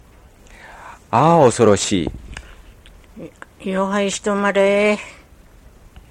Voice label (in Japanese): ああおそろしい, Ainu; mukawa